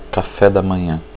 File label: breakfast, portuguese